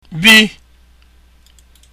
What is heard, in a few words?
b letter, igbo; Boọlụ; Ball